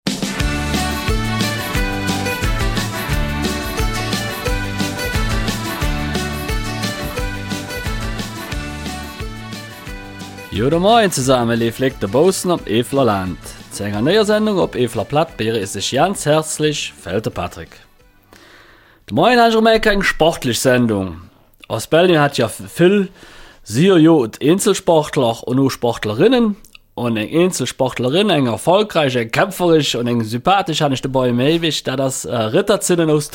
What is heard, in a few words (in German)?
Eifeler Mundart; Leidenschaft für den Radsport